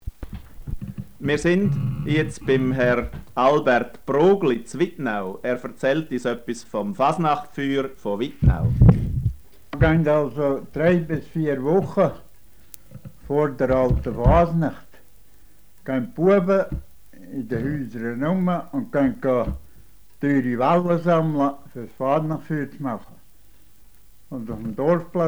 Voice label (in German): Wittnauer Mundart Reime; Wittnauer Mundart 1968